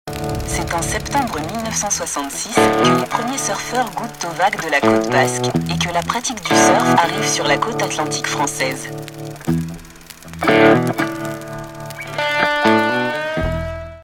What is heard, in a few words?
french documentaries